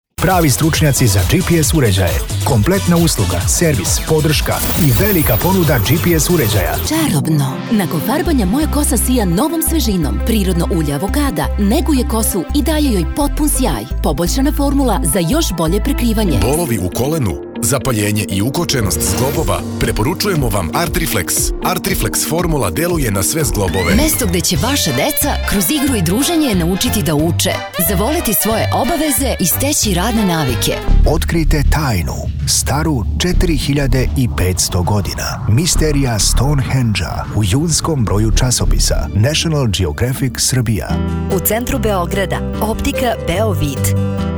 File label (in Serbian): Radio Reklame Spotovi Radijski Spikeri Vise Glasova Srpski voices